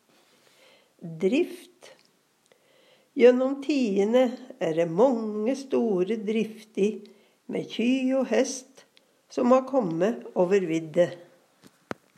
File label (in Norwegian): "drift", Numedalsmål